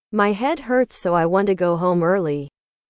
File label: english